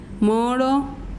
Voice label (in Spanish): mosco, mazahua